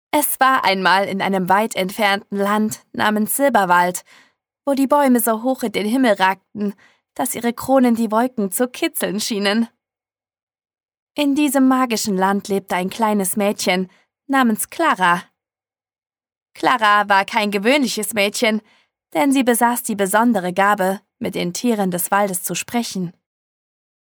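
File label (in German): neue Hoerprobe Maerchen, Sprecherin; Hörbücher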